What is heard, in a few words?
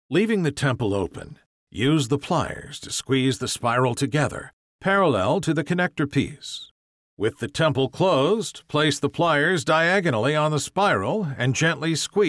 Installation Repair Narration; Temple